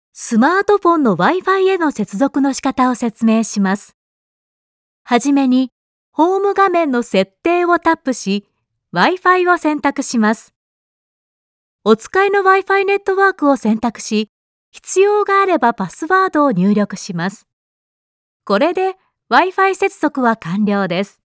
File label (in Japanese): 商品説明